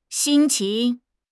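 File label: "xin1 qing2", Mandarin Chinese; HSK4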